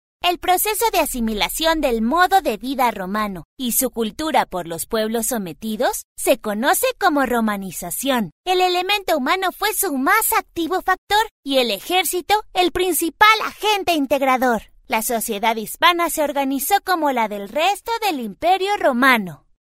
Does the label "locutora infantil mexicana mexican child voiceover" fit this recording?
Yes